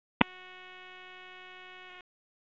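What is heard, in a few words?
EMS Dispatch; wake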